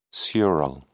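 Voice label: "sural", British English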